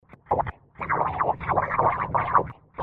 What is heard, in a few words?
alien voice